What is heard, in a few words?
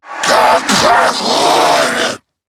Attack, en; Zariman Wraith